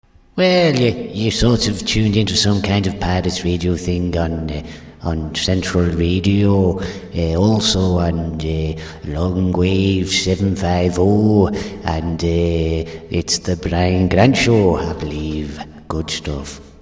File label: voice irish long; Brian Grant Show; Central Radio